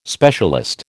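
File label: "specialist", American English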